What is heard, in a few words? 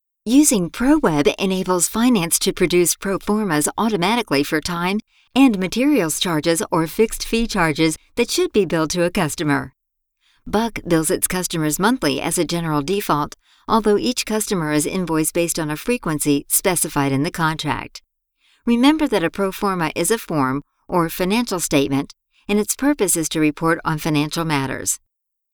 Narration DRY Audio